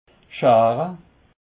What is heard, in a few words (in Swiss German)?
"schare", alsace